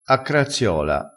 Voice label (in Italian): "a craziola", Crespinese